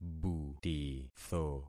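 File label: "butitho", concatenated syllables; Affixing experiment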